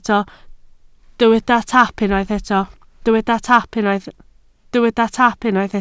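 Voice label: "taap" Welsh, spoken word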